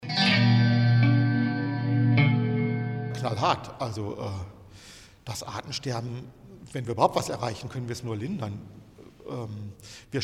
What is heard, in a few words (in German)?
Podcast